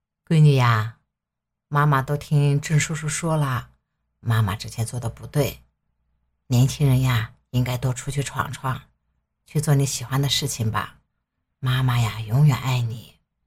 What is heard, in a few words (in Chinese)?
模仿 妈妈永远爱你